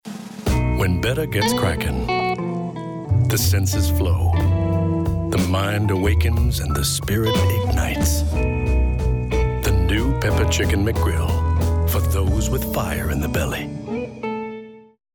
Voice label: radio spot; mcdonald